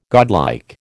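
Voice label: "godlike", American English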